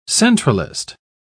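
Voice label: "centralist", British English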